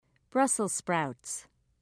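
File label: "brussels sprouts", English, British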